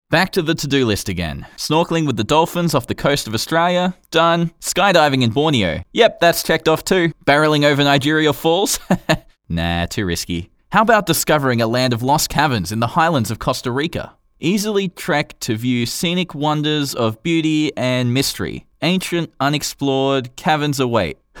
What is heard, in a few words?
Conversational Travel Commercial